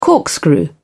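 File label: "corkscrew", British English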